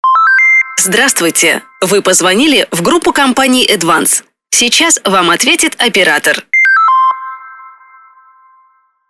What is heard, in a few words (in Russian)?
IVR ИНФОРМАТОР; Эдванс - Оператор на месте (вер.1)